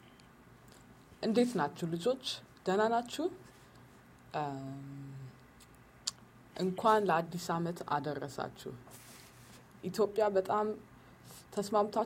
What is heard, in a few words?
Amharic